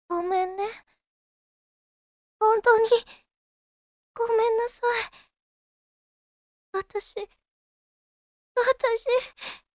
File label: girl gomen